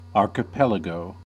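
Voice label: "archipelago", US English; pronunciations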